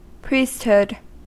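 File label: "priesthood", US English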